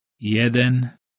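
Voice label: "jeden" Polish male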